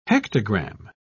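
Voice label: "hectogramme", British English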